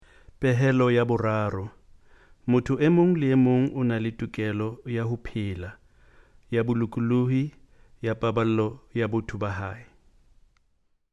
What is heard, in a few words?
Sesotho